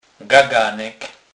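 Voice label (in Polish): "gaganek", Kurpie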